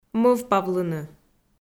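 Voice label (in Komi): "мӧвпавлыны", Komi, female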